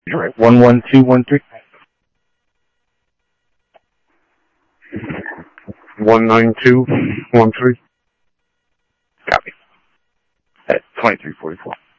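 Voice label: Phoenix police department, scanner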